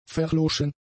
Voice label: "verloschen", German; adjectives